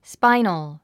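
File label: "spinal", English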